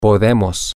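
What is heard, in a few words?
we Can, spanish, male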